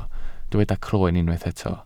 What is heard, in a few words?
"croen", welsh